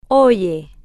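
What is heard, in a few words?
"oye", Spanish, female, slow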